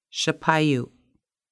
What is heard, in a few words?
Mushroom, Lushootseed